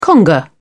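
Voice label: "conga", British English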